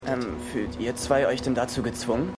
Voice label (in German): Junger Mann; New Amsterdam